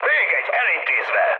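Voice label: player killed enemy, mood med, Hungarian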